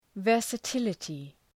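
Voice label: "versatility", English word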